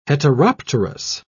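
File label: "heteropterous", English, British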